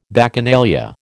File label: "Bacchanalia", American